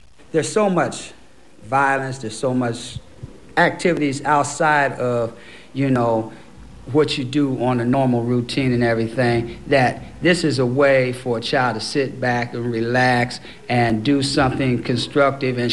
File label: AAE Cleveland, African American